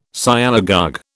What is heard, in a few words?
"Sialogogue", British